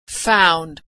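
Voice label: "found", American English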